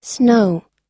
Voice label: "snow", us

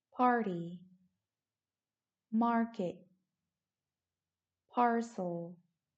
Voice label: Two Syl Middle aɚ

